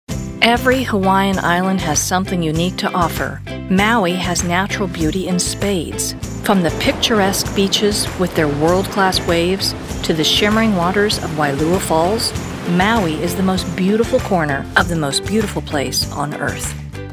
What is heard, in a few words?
Narration